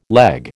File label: "leg", American